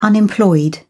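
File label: "unemployed", British English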